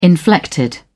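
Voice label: "inflected", British English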